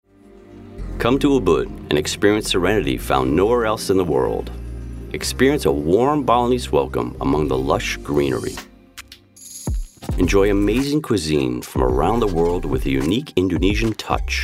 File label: US English; Ubud Holiday